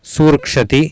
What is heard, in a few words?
"suurkSati", Sanskrit